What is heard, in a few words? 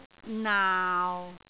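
"naau6", Cantonese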